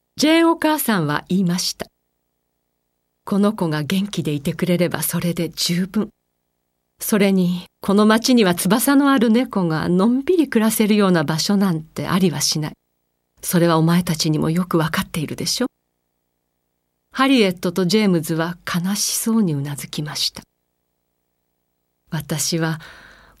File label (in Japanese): 朗読